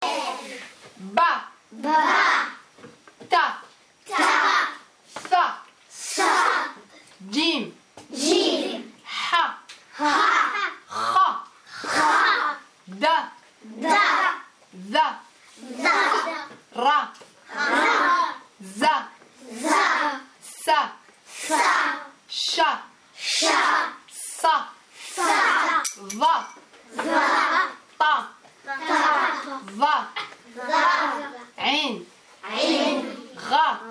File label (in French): alphabet en arabe